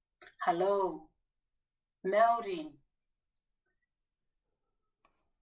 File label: Hello, Kiribati